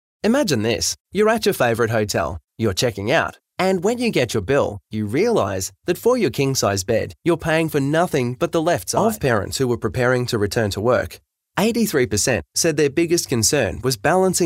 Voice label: English Australian commercial

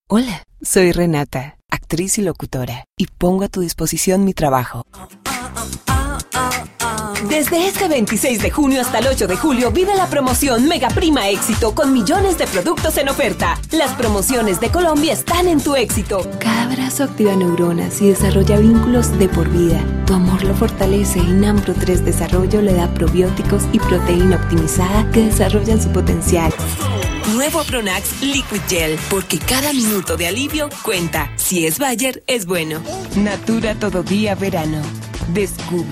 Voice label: spanish latin american commercial; Kolumbien

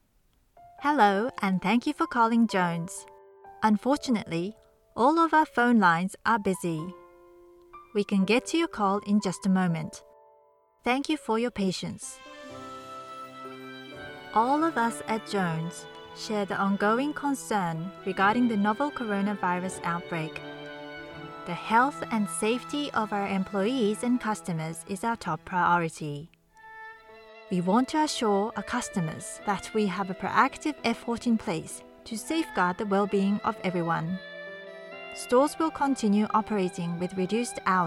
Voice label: english commercial

